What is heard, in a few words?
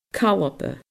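"calpa", Scottish Gaelic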